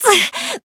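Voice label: damaged, Japanese; clarte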